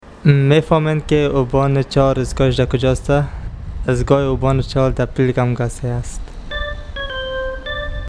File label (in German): Hoerbeispiel Farsi